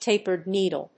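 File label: "tapered needle", English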